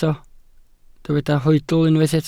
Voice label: "hoedl", Welsh

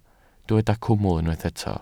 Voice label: "cwmwl" Welsh

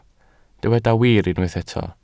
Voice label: "wir" Welsh

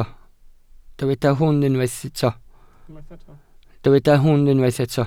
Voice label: "hwn" Welsh

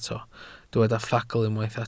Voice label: "ffagl" Welsh

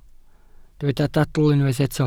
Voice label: "dadl" Welsh

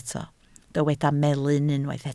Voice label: "melyn", Welsh

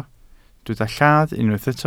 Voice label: "llath", Welsh

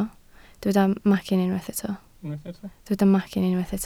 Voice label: "macyn", Welsh

